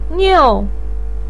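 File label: "niu4", Mandarin pinyin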